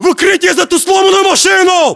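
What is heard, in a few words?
order cover car wrecked, battlechatter, Russian; localized english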